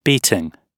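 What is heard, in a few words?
"beating", British English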